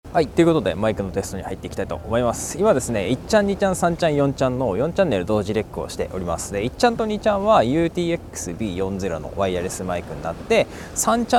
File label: BOYAMIC Mic